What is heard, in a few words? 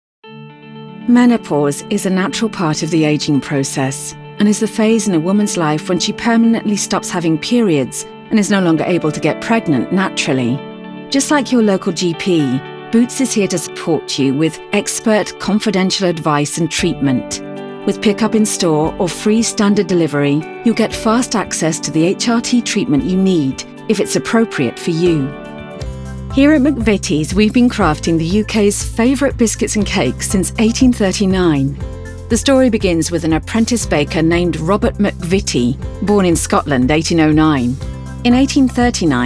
CORPORATE ENGLISH